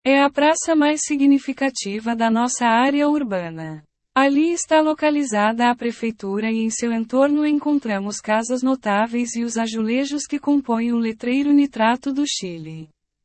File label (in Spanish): PORTUGUES PLAZA DE ESPANA